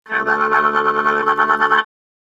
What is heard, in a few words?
scared, speech; Cyberworms